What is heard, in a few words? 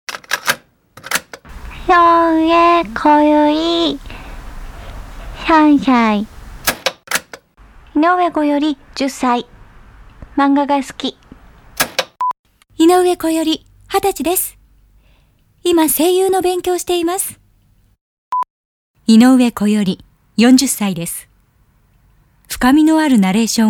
narrater